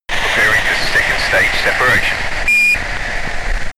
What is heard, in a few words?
astronaut chatter